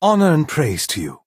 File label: Altmer male "hello"